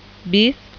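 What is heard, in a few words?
"bis" Indonesian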